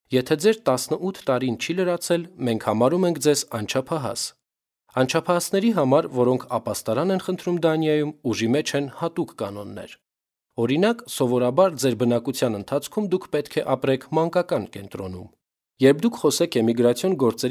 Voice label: armenian voiceover locutor armenia